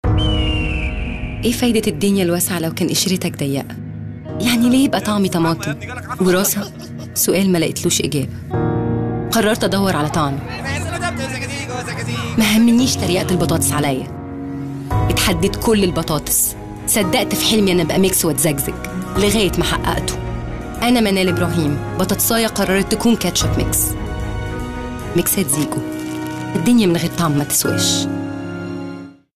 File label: German Corporate Narrations